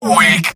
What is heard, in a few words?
Scout mvm being shot invincible